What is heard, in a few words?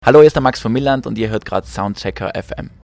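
Station ID; Soundchecker FM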